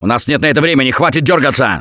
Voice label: Heal Other Combat, russian, Survivor Voice; Gambler